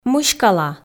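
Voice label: "мыськала", Komi, female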